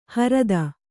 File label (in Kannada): "ಹಾರುದಿ", Kannada